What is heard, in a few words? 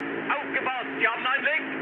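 German damaged water mood high, speech; War Thunder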